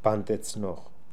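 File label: "Pandetznokh", pronunciations; Fourth Way; Beelzebub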